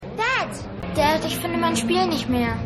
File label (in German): Junge; Rescue Me